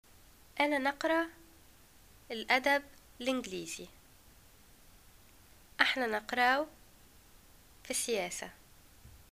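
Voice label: studying, Tunisian Arabic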